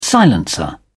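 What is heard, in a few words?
"silencer", British English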